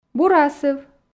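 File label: "бур асыв", Komi, female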